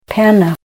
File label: foam, Ninilchik Russian